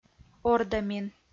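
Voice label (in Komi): "ордӧмин", Komi, female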